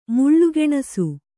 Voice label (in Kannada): "ಮೊಳೆಗಾಣಿಸು", Kannada